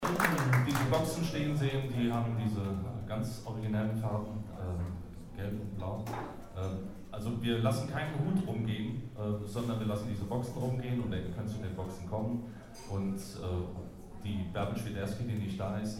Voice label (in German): ansage; akustik-park